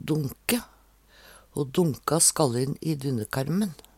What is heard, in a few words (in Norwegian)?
"donke", Numedalsmål